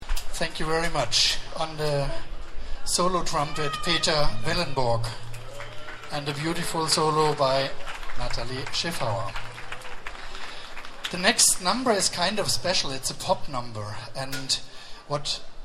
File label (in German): Ansage